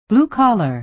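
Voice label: "blue-collar", American English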